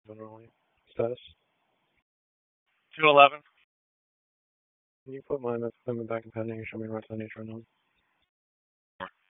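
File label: Police Northeast District Disp, radio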